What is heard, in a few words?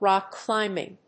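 "rock-climbing", English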